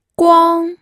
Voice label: "guang1", pinyin audio